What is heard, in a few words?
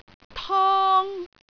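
"tong1", Cantonese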